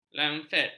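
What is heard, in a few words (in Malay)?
"lanset", Malay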